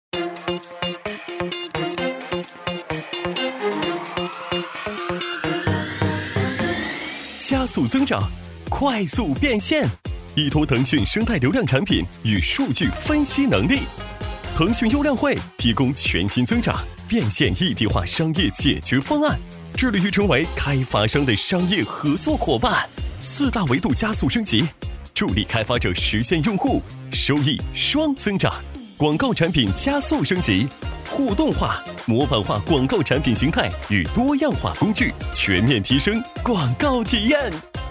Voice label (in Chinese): 男 广告配音 年轻轻松; 厚重有力; 轻松活泼; 腾讯优量汇